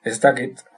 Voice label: "i dont know", Basque